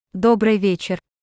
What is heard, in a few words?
Russian Good evening; Greetings